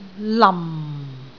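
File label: "lam4", Cantonese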